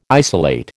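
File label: "isolate", American English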